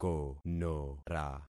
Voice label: "konora", concat syllables; Affixing experiment